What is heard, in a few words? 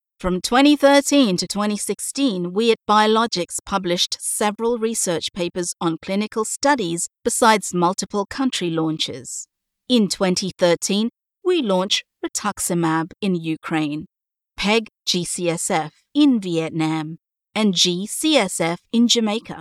narration